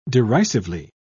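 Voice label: "derisively", English, British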